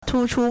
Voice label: "tu1chu1", Chinese